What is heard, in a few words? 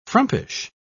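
"frumpish", British English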